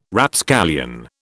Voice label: "Rapscallion", British